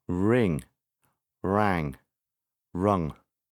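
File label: "ring", English; csörög; csenget; felhív valakit telefonon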